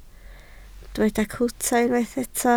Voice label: "cwta", Welsh